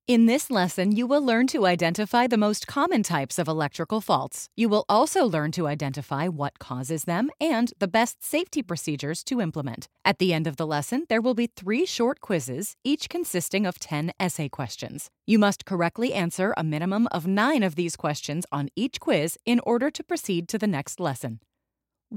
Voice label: eLearning Sample